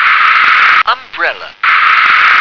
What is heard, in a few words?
"umbrella", English